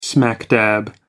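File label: "smack dab", English, British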